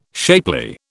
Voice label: "shapely", British

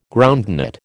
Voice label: "groundnut", American

